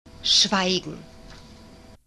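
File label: "schweigen", German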